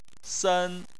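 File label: "sen" tone 1, Chinese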